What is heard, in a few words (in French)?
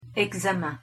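"examen", French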